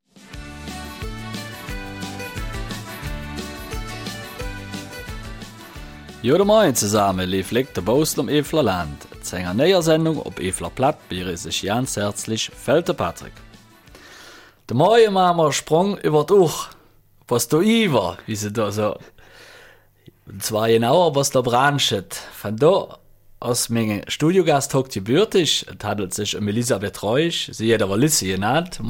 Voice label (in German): Eifeler Mundart; Lizzis Welt